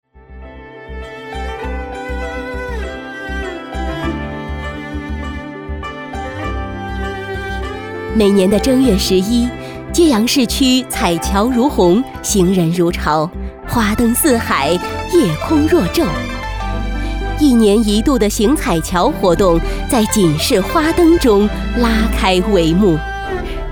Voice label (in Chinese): 大气典雅节日